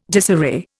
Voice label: "disarray", Indian

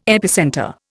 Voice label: "epicentre", Indian

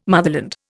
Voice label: "motherland", Indian

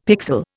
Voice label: "pixel", Indian; Dictionary

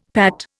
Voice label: "Pat", Indian; Dictionary

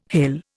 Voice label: "hell", Indian

